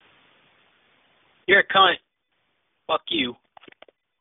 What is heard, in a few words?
voicemail